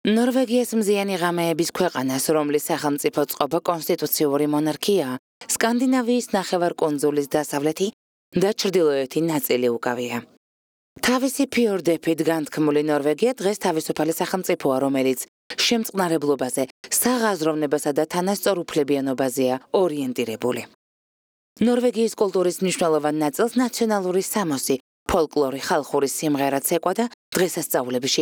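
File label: georgian female narration; voice demos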